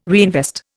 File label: "reinvest", Indian